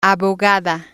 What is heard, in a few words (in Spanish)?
"abogada", spanish